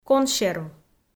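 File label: "консерв", Komi, female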